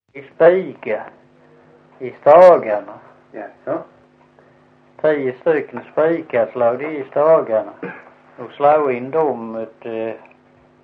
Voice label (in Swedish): Visseltofta SK, dialekter